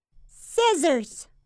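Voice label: "scissors", English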